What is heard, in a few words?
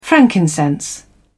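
"frankincense", English, British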